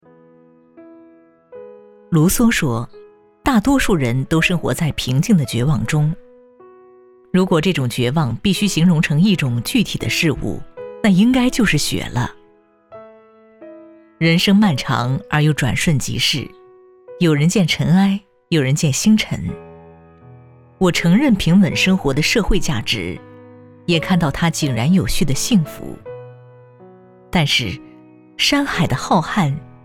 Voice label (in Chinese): 女, 散文, 温情; 冬天的琐事